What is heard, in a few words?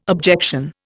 "objection", Indian